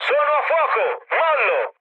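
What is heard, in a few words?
Italian on fire mood med, speech; War Thunder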